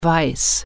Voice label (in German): "white", German